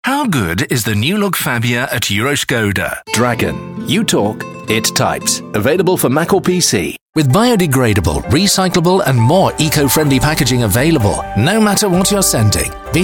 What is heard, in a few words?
commercials hard sell